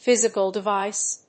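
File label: "physical device", English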